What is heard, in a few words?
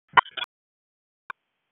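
Police Northeast District Disp, radio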